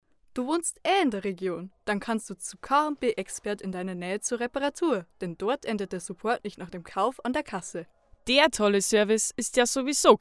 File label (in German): Werbung Reminder; K+B Expert